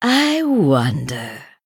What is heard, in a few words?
Female San'layn Thinking